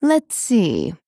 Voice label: Female Dryad Thinking

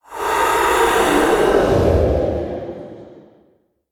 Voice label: Male Ghost Play

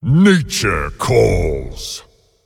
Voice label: Male Ancient Trigger Resurrected

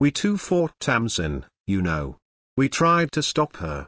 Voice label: Male Troll

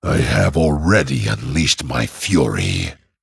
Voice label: Male Dragon ERROR I ATTACKED

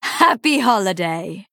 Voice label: Female Night Elf Happy Holidays

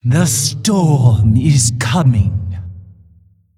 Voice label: Female Mogu Play

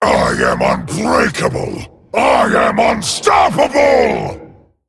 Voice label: Male Dragon

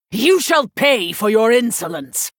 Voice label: Female Human; Prestor; Scabbs; Emote Response

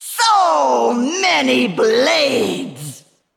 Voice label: Female; Val'kyr; Trigger Deadly Arsenal

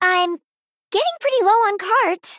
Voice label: Female Gnome Hero Low Cards

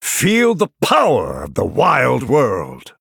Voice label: Male Night Elf Start

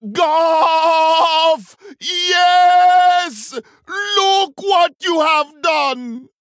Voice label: Male Troll; Brukan

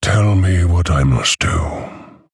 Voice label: Male Orc Victory

